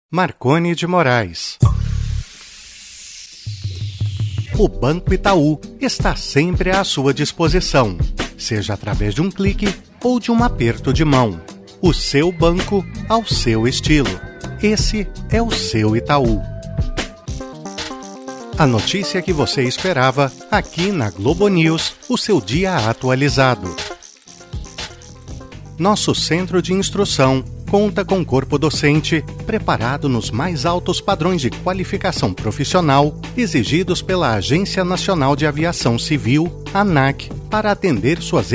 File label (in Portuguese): portuguese brazilian commercial